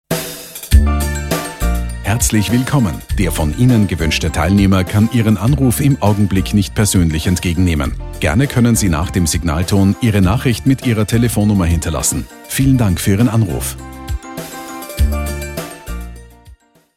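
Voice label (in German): Standard männlich universal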